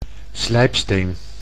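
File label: "slijpsteen", Dutch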